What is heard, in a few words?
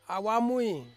"awamui", Ansus; husband